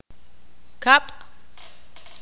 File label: "abeja", Ixil